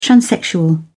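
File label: "transsexual", British English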